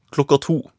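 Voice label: "klokka to", Norwegian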